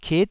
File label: "kit9", taiwanese syllables